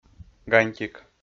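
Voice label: "гантик", Komi-Zyrian, male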